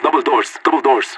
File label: doubledoors, radio; LEET